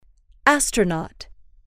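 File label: "astronaut", female, English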